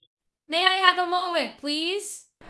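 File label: Voice Acting Spanish Female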